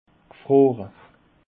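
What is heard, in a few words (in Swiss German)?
"gfroore", Alsatian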